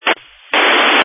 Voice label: Family Radio Service (FRS)